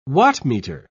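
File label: "wattmeter", British English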